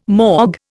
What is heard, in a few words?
"mog", Indian